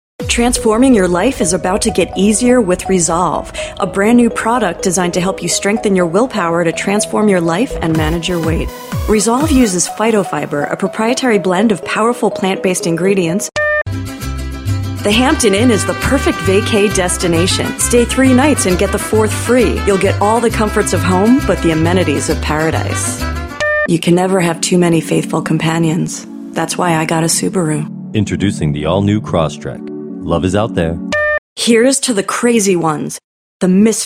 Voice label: english american voice over female commercial

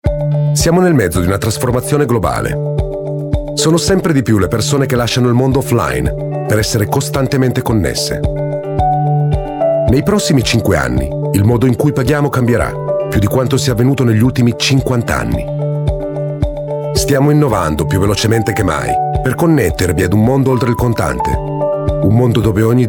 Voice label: italian voice over male elearning

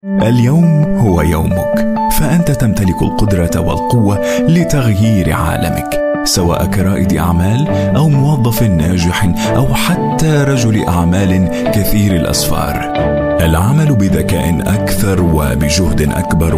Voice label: arabic voice over male narrator

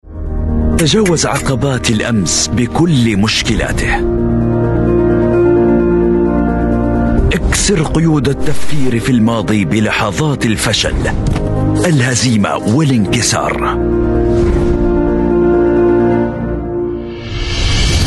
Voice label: arabic voice over male narrator

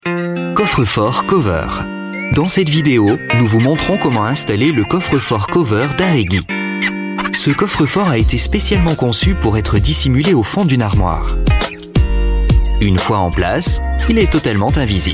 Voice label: french voice over male elearning

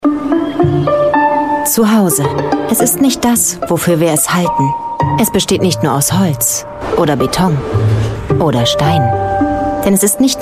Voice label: german voice over female narrator

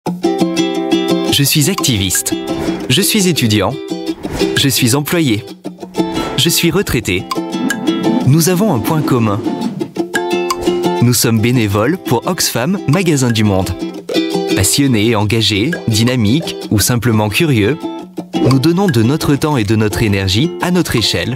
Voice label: french voice over male narrator

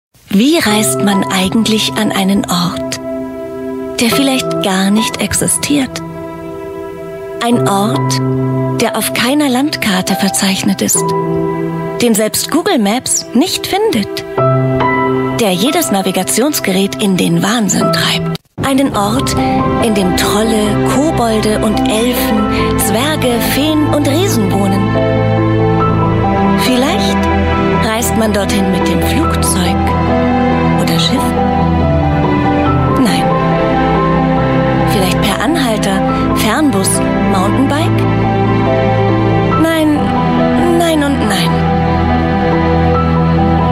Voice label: german voice over female audiobook

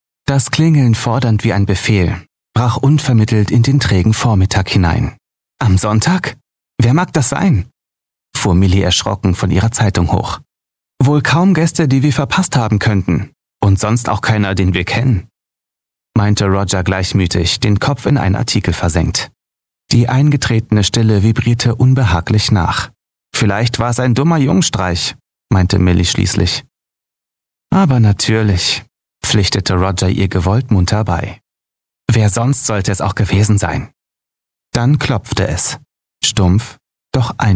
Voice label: german voice over male audiobook

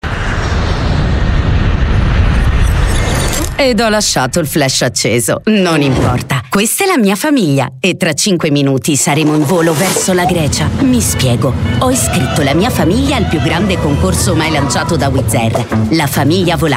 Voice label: italian voice over female commercial

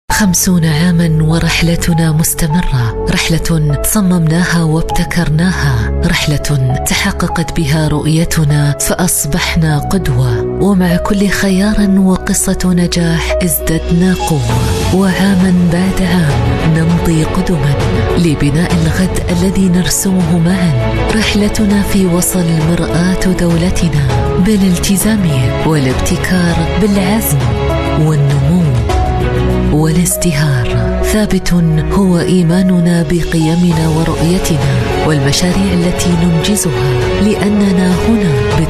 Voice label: arabic voice over female narrator

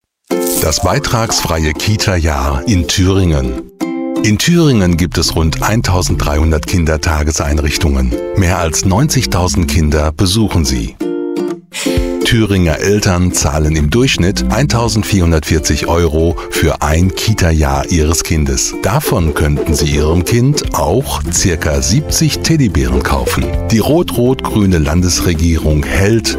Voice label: german voice over male narrator

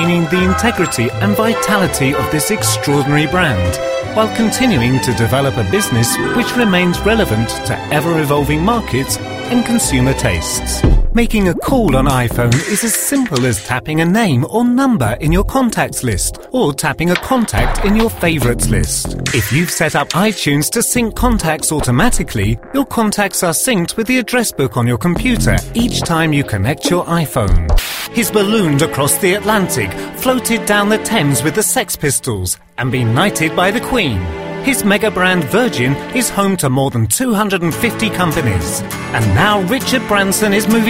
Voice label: english british voice over male

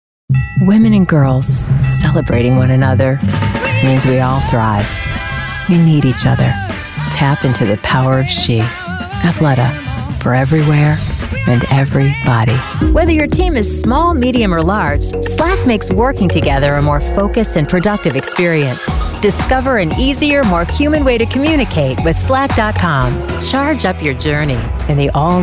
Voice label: english american voice over female commercial

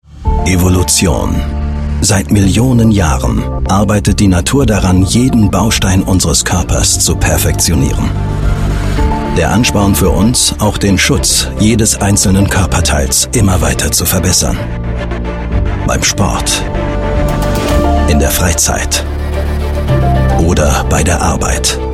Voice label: german voice over male narrator

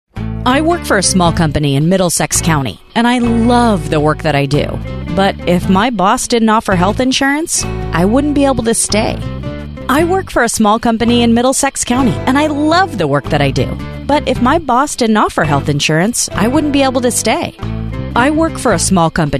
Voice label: english american voice over female narrator

